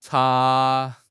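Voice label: "zha1", Chinese; 汉语口语新时代-初级下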